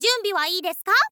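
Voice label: "zyunbi ha ii desu ka", Japanese, girl; voice; info girl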